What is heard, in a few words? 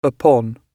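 "upon", British English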